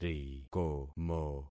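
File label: "rikomo", concatenated syllables; Affixing experiment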